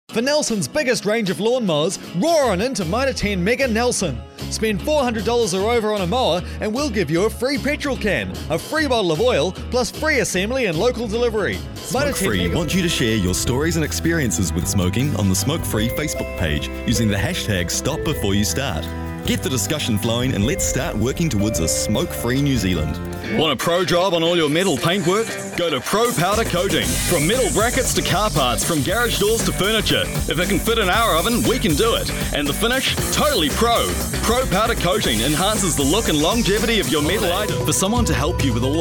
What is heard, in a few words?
Voice Actor; BigMouthVoices